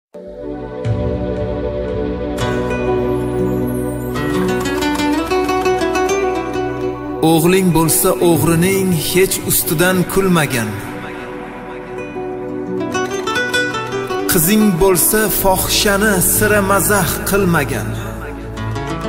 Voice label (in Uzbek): monolog; qaytar dunyo qaytarmish